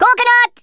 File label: grenade, Speech Rasta